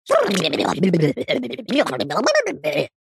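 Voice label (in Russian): zvuk neponyatnoi tarabarshiny, sound of incomprehensible gibberish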